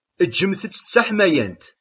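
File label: "Eǧǧet-tt d taḥmayant.", Kabyle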